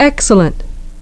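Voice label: "excellent", English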